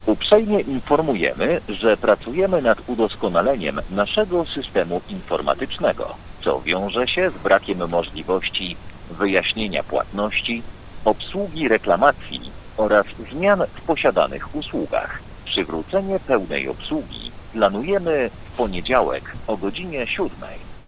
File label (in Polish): Biuro obsługi Komunikat o przerwie technicznej; Orange